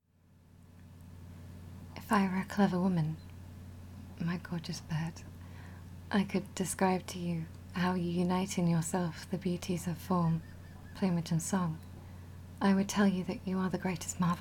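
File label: Romantic, Love Letter, female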